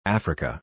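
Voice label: "vision", US English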